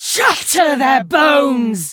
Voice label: Female Human Attack

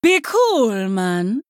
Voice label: Female Troll, Attack

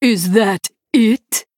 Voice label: Female Draenai Attack

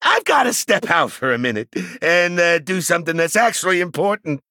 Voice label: Male Goblin Half Health

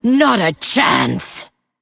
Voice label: Female Undead

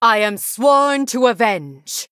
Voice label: Huntress Female Night Elf Play

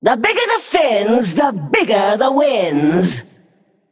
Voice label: Female Naga TRIGGER EVERYFIN IS AWESOME

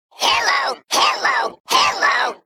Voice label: Male Mech Play

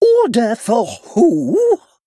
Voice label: Male Steward Attack

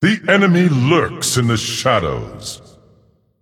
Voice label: Male Titan Forged; ERROR STEALTH

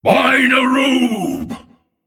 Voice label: Male Giant Beetle Attack

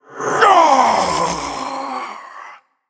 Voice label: Male Undead Death

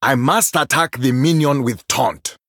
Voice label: Male Troll ERROR TAUNT

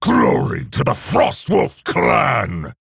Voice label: male orc, Bark; CaptainGalvangar

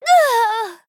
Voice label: Female Draenei Death

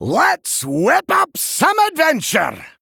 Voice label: Male Dwarf Bark

